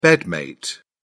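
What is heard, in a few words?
"bedmate", English, British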